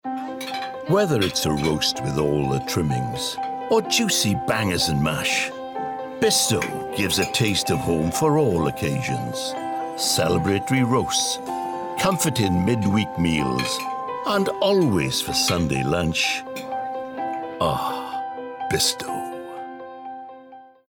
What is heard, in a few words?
male English Welsh commercial